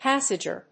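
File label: "passage r", English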